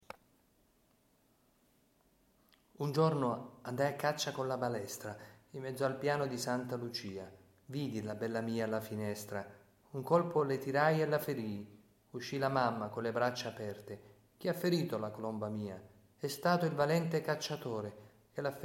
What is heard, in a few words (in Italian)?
recitato Tarantella con strapulèttë; San Giovanni